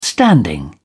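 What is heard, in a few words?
"standing", British English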